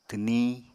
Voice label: "twniñ", Apatani